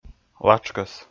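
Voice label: "латшкӧс", Komi, male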